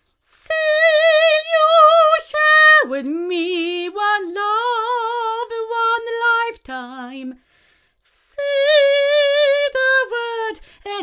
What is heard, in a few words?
MXL R144 female